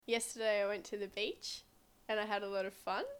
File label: Australian English, Yesterday I went to the beach and I had a lot of fun.